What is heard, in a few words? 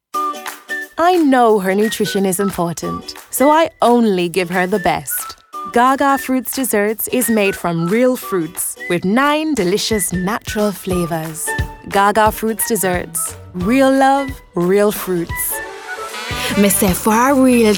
jamaican accent